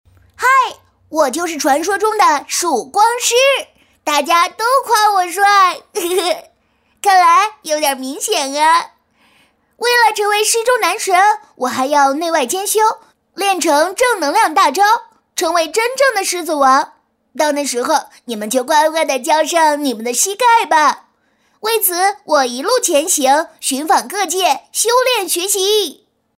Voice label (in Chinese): 女-动漫-男童; 曙光师